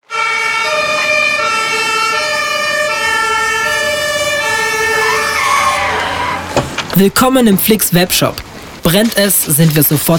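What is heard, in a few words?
German, Commercial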